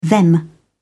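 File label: "them", British English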